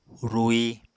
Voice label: "rui", Apatani

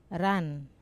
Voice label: "ran", song, Wamesa

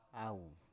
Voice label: "au" Biak

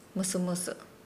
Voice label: "whisper", Nukuoro

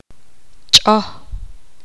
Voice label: poqomam; rat n

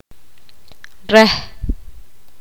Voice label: poqomam; ella

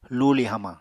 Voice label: "luuli hamañ", Apatani

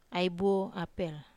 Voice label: "apel", Wamesa

